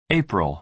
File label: "april" US